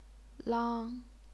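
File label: "lang", Chinese, first tone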